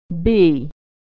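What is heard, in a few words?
"b", American English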